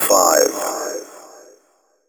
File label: techno male 140; Vocals